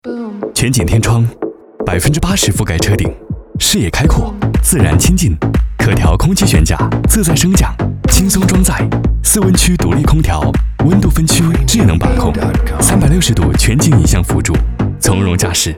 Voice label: 男 个性 个性时尚酷

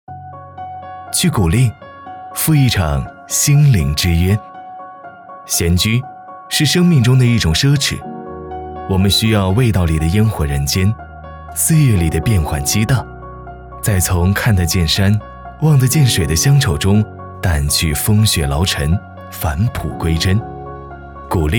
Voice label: 男 年轻宣传; 闲居

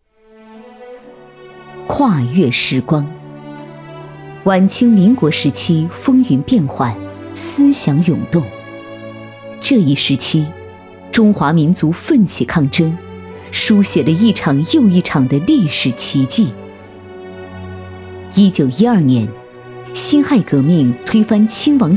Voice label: 纪录片 大气历史感; 历史展览馆

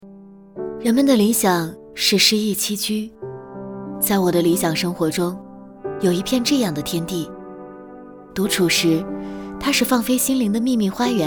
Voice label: 女 独白; 人们的理想

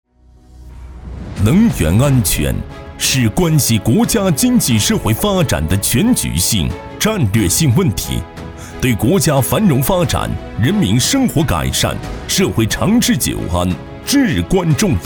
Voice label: 男 专题 大气质感 能源安全

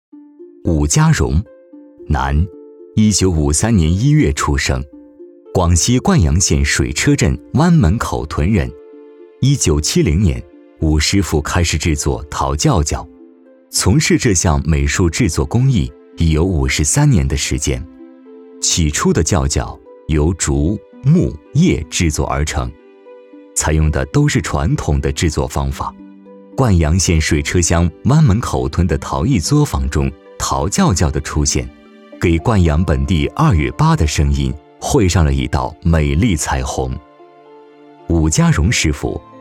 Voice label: 男; 舌尖; 传承人

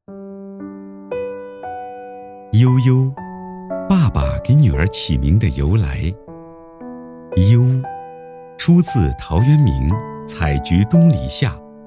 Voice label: 男 旁白 婚礼浪漫旁白

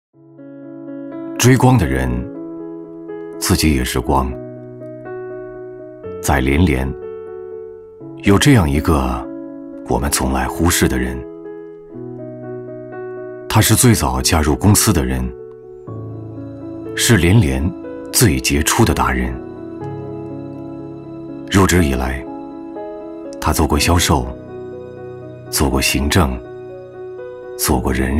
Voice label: 男 宣传片 人物介绍 深沉

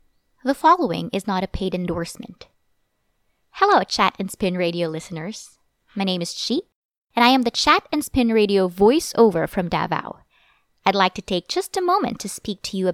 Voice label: 外籍英女 自然讲解

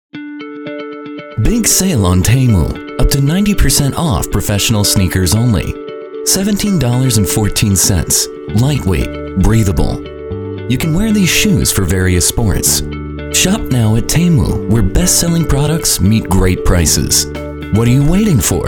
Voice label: 外籍男, 宣传片, 科技感

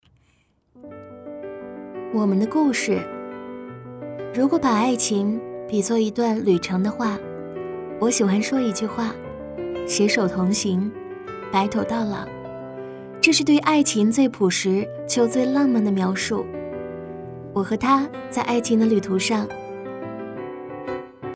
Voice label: 女, 旁白, 微电影, 婚礼; 我们的故事

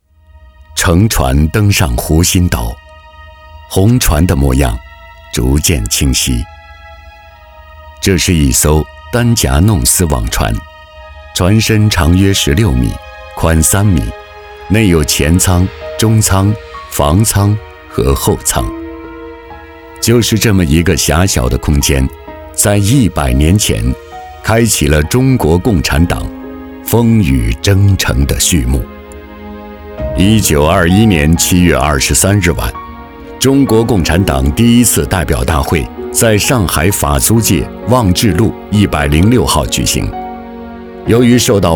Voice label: 男 宣传片; 红船

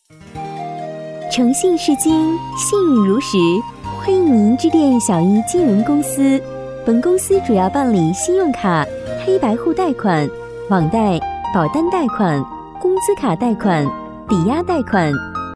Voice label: 女 彩铃 温柔甜美 彩铃

